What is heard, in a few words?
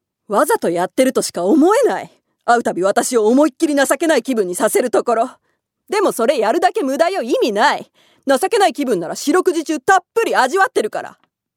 female voice; セリフ